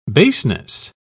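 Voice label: "baseness", British English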